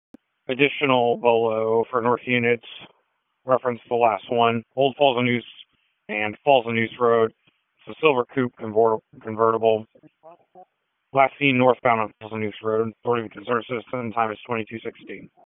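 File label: Police Northeast District Dispatch; Raleigh; wake p25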